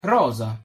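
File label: "rosa", pronunciation, Italian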